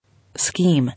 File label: "Scheme", English